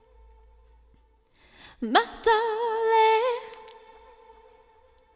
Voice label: Mixed Berry Jam Voice, 70s Analog Funk

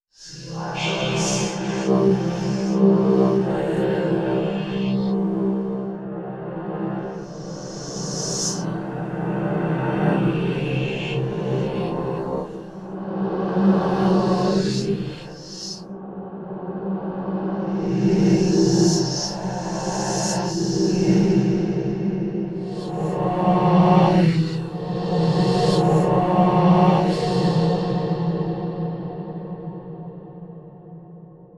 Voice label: robotic whispers; M8; random and out of place

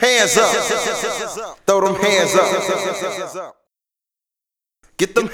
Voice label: Hands Up Delay, Hip-Hop MCs; Sonic Foundry (Sony Creative Software); Hydroponic Hip-Hop

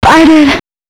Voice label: female c# 135, short hooks, Dance Voice; Mutekki Media; Dance Ultra Voice VOL-1

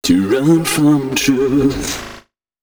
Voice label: male d# 130, short hooks, Dance Voice

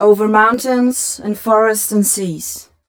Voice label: fem spo, Vocals

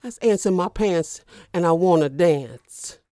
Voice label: DIALOG, R&B Dance, FEM. SPOKEN

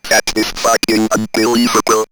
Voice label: Robot Unbeliveable, robot vox; X-Static Goldmine

